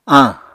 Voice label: "añ", Apatani